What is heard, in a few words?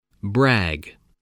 "brag", spelling